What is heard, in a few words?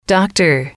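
"doctor", English, female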